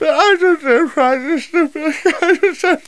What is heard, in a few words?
JIBERISH